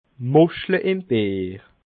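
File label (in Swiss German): "muschle", Bas Rhin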